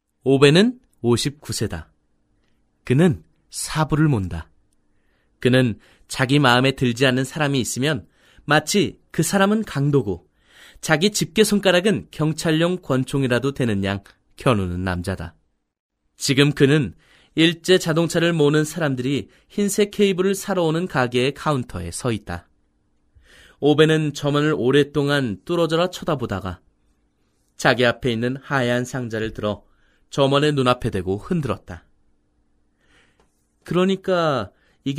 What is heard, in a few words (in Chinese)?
韩语男声 宣传风格